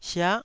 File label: "sia9", taiwanese Syllables